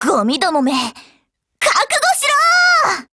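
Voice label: Japanese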